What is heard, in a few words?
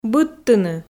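"быдтыны", Komi, female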